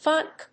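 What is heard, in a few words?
"thunk", English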